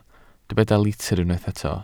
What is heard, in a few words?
"litr", Welsh